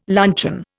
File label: "luncheon", Indian